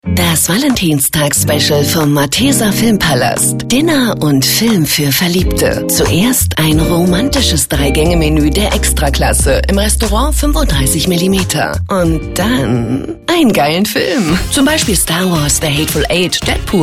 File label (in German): Funkspot sexy; Mathaeser Filmpalast